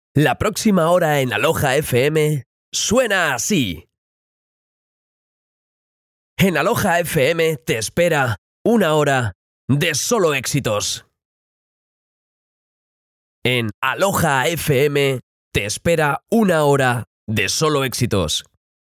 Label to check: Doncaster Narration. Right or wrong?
Wrong